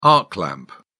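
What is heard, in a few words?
"arc lamp", British English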